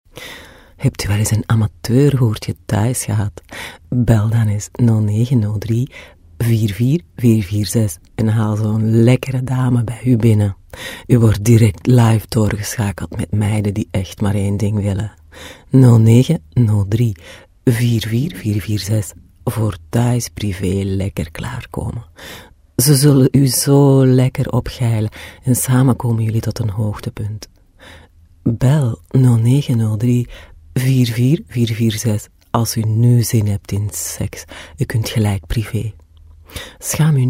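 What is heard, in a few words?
dutch female voice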